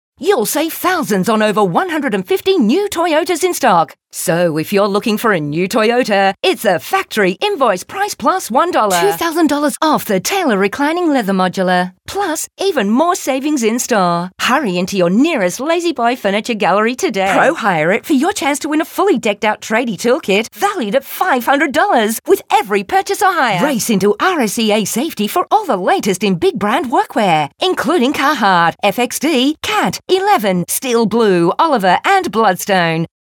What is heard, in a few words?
Hard Sell